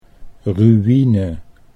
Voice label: "ru ine", Dutch